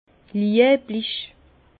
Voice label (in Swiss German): "lieblich", Bas Rhin; vin; aimable